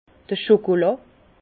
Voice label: "chokola", Bas Rhin; vin; chocolat

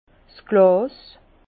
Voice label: "glas", Bas Rhin; vin; verre

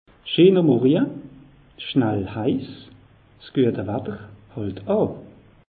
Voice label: "scheener morje", alsace; meteo

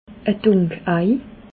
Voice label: "dunkei", alsace

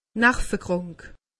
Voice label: "nervekrank", alsace; medecine

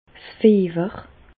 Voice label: "fiewer", Alsace, Bas Rhin; medecine; fièvre

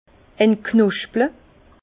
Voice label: "entknosple", Bas Rhin; vin; ébourgeonner

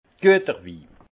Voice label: "gueterwi", alsace; vin; bon vin

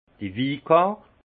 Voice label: "wikart", alsace; vin; carte des vins

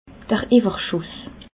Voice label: "iwwerschuss", alsace

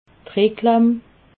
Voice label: "reklam", alsace

